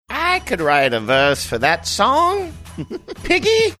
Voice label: saying i could write verse for that song piggy funny